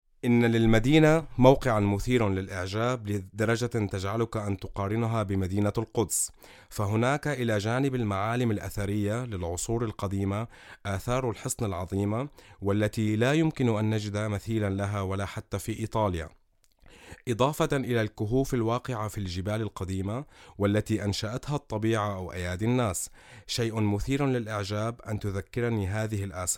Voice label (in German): Arabisch